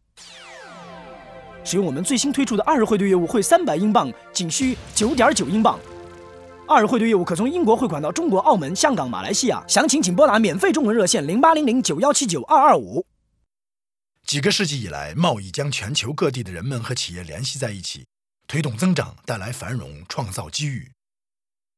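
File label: Chinese Mandarin; Male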